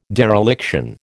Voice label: "dereliction", American